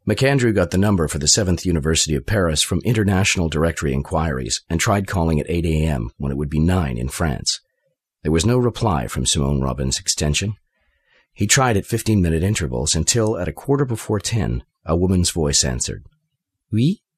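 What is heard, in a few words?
drama; 20-30s. US. Young, friendly and warm. Speaks fluent Arabic. Home Studio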